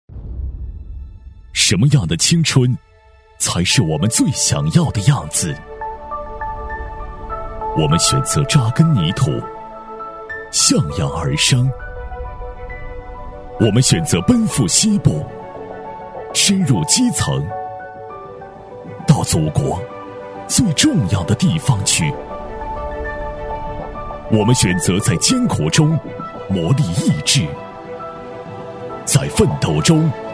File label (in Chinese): 男 宣传片 青春奋进者 力度硬朗; 喊麦激情 大气浑厚